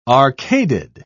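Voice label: "arcaded", British English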